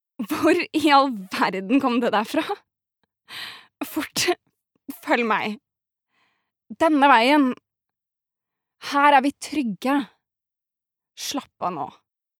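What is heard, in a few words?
dub, Norwegian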